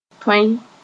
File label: "tõe", Mooré